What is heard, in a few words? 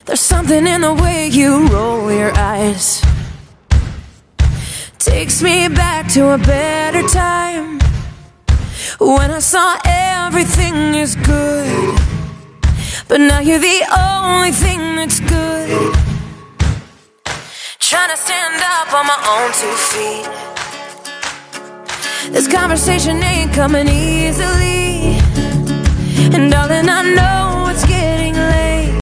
hollywood conversation ringtone